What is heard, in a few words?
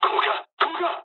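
Japanese bailout mood low; War Thunder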